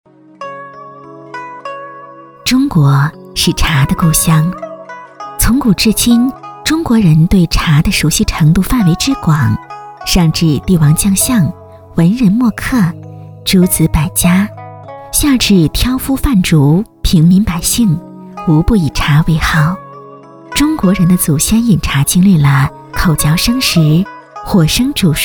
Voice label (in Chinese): 女 专题 温柔舒缓; 中国是茶的故乡